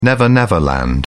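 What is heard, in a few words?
"never-never land", British English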